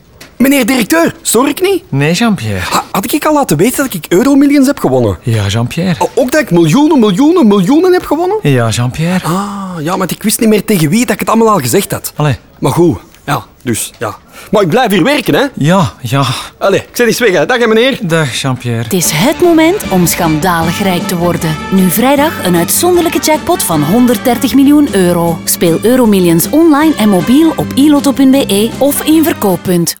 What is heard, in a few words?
radio; eum jackpot 130m